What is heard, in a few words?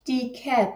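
"Cap", German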